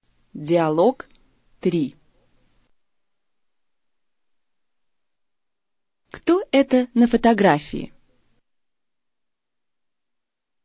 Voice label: dialog, russian